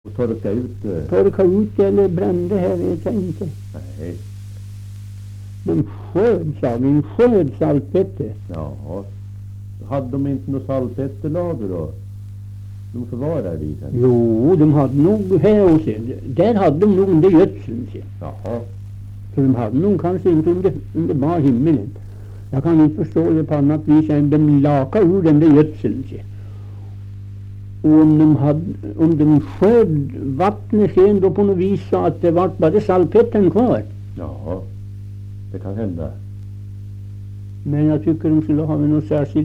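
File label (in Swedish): Österfärnebo Gästrikland, dialekter; Folkminnen; Svenska sagor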